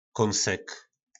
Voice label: "Kónsek", nářečí Po našimu; Kousek

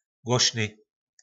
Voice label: "Głošny", nářečí Po našimu; Hlasitý

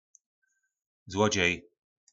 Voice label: "Złodźej", po našimu; Zloděj

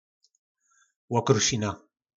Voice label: "Łokrušina", nářečí po našimu; Drobek (z jídla)

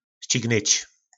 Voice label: "Ščignyč", nářečí Po našimu; Stihnout; To se nědo ščignyč.

